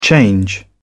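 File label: "change over", British English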